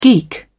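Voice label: "geek", British English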